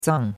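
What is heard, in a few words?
"zang", Chinese, fourth tone; Cours-de-Chinois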